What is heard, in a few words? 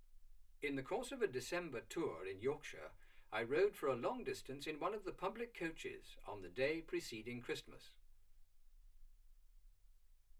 male speech english